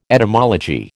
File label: "etymology", American